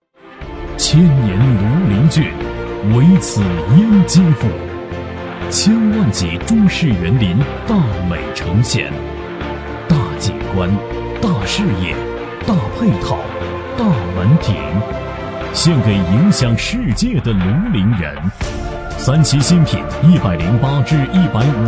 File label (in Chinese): 男 高端广告 房产类广告